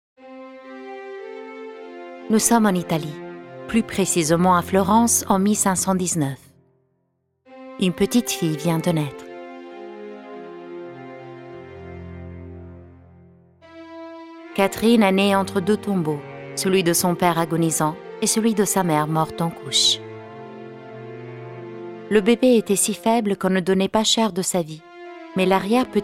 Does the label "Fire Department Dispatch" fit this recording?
No